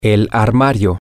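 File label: male, spanish; the Closet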